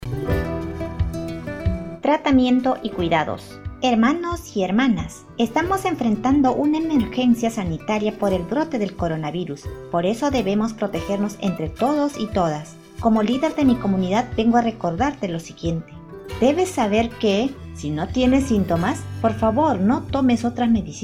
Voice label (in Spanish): espanol musica andina tomar medicamentos con precaucion; sospecha o confirmacion de contagio